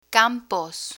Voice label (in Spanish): "campos", Spanish; learn spanish